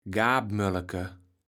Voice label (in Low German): "Gaapmülleke", Platt